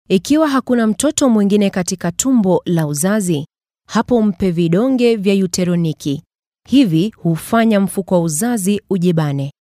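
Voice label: swahili female voiceover locutora swahili; locutora suajili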